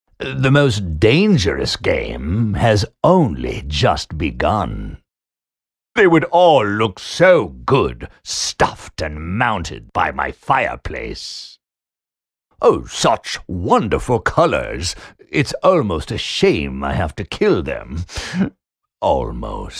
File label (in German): Videospiele Junge Erwachsene; NATIVE PROFISPRECHER